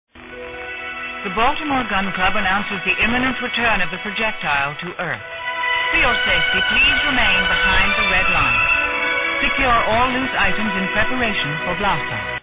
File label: voiceover